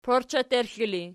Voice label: "porcheterxli", Archi